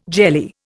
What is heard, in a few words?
"jelly", Indian